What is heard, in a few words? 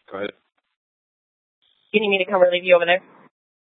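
police dispatch, scanner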